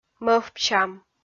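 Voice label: "мӧвпсям", Komi-Zyrian, female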